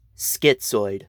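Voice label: "schizoid", American English; pronunciations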